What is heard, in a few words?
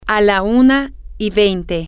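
one twenty, Spanish, female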